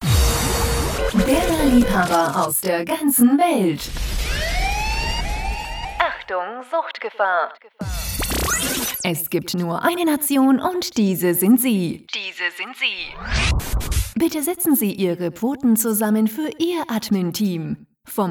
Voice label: Live Announcement

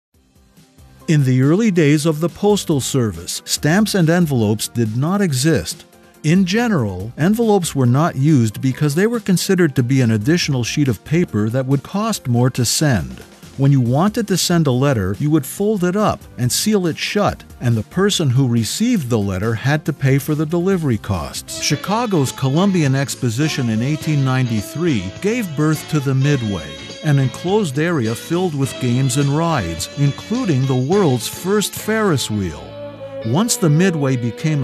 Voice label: history documentary compilation

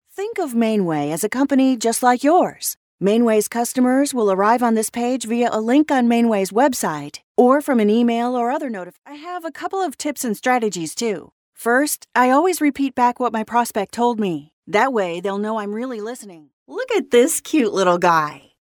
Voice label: Elearning

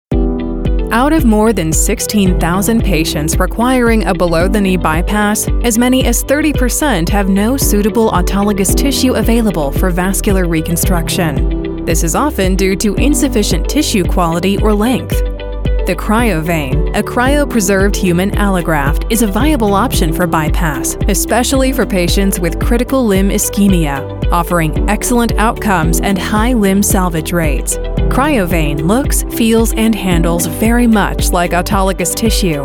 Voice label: medical elearning

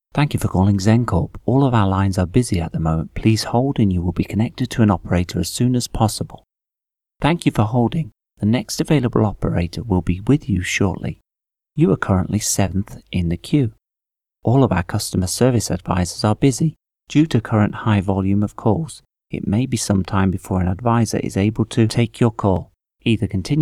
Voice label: Telephone IVR

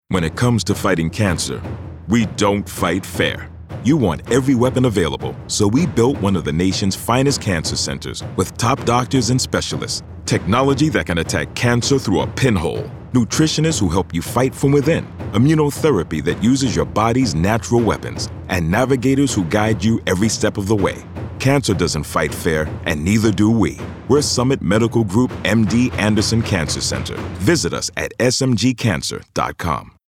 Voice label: RADIO; DONT FIGHT FAIR

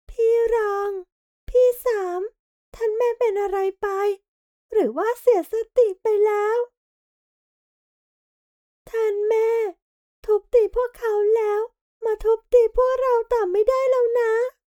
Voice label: child voice

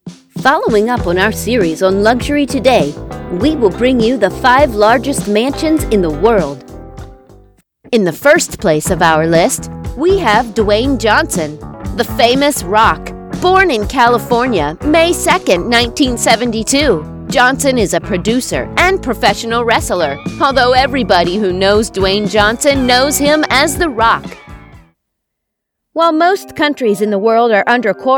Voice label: YouTube Narration

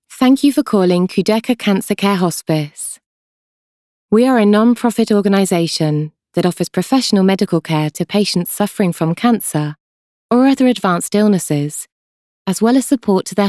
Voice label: IVR

